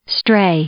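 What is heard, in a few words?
"stray", English, female; verb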